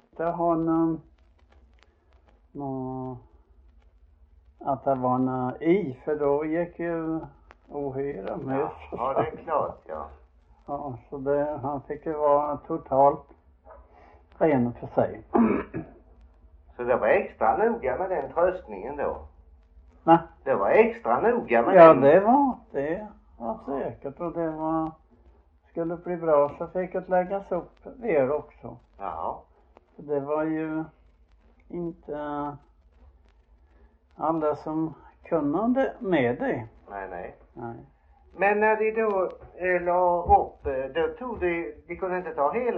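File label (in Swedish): Frillesås Halland, dialekter; Folkminnen; Svenska sagor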